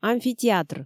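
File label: "амфитеатр", Komi, female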